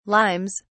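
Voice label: "limes", British English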